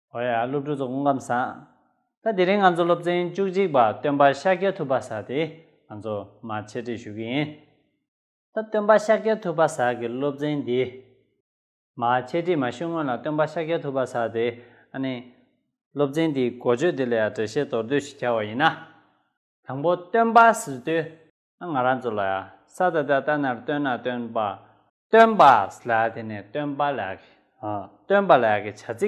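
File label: Tibetan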